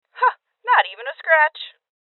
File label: Voices Armor Shot Female